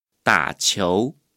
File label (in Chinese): "打球" Chinese